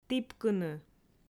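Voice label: "тіпкыны", Komi, female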